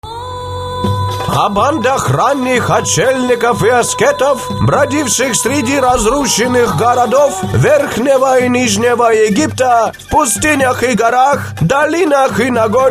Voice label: arab, Arab